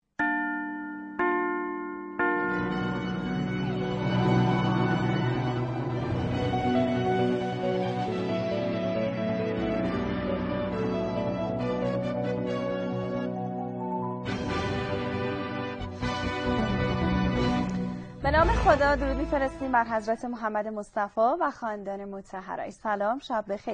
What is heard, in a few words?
Sound Old News